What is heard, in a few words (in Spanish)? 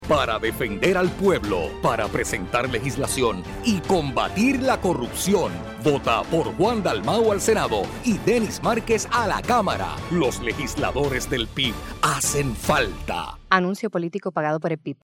Anuncio Radio; Candy Legisladores